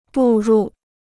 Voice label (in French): "步入", chinois